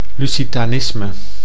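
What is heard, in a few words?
"lusitanisme", Dutch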